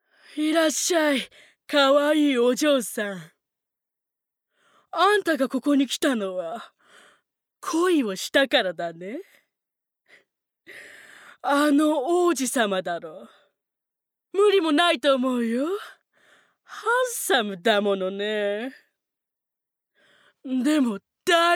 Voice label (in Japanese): ナレーション